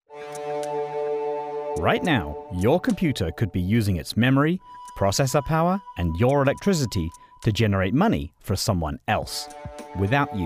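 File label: narration crypto training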